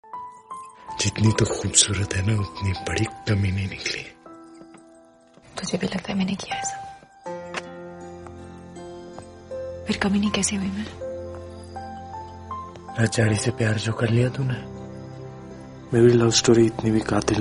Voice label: Love Dialogue Ringtone; Ram Leela